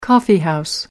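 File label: "coffeehouse", British English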